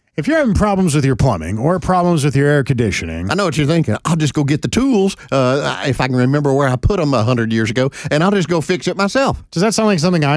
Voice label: RADIO AD; nicks plumbing radio ads